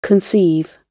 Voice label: conceive, gb